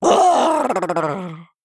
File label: Male Murloc Death